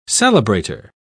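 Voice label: "celebrator", British English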